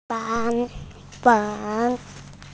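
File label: "fang2", Mandarin Chinese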